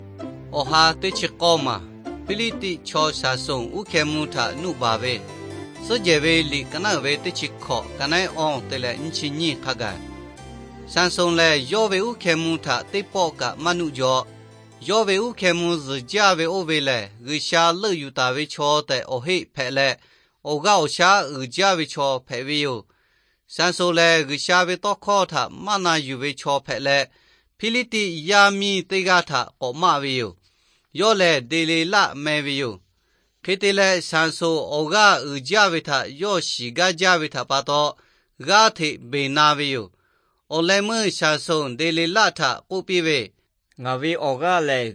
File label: victory through god lahu na, nt drama; bible; lahu thailand bible society